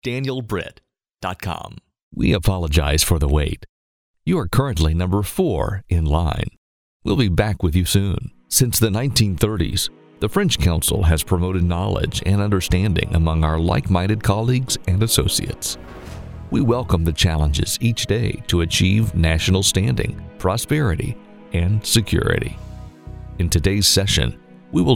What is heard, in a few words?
Narration